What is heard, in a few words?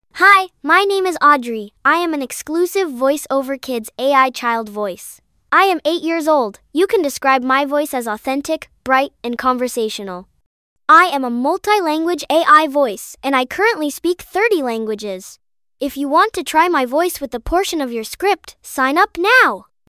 girl8; voiceoverkids